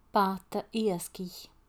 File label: Fishing boat, Gaelic